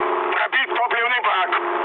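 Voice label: tank damaged, mood high, Russian; War Thunder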